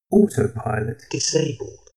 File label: friendly male system status